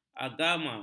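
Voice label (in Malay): "agama", Malay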